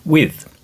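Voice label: "with", British English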